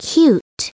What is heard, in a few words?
cute